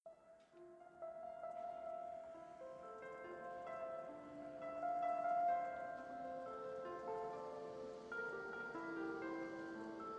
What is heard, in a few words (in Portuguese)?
ANIV DE NOIVADO MASC, telemensagem